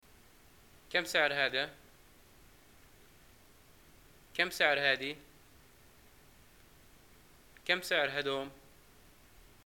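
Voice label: shopping how much, Libyan arabic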